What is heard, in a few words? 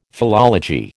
"philology", American